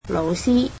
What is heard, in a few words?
"teacher", Cantonese